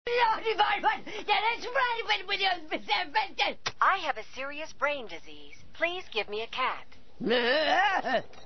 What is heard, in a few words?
gibberish